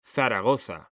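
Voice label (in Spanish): "ZARAGOZA", Spanish, male; mekanta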